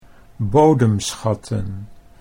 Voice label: "bodemschatten", Dutch